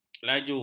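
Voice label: "laju", Malay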